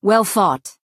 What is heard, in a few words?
Breton female good game